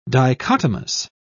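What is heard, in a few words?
"dichotomous", British English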